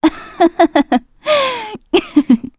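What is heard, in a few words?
Vox Happy, Korean; Valance; kingsraid; voices heroes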